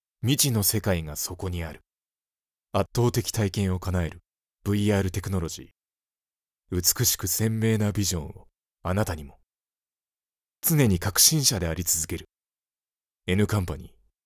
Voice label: male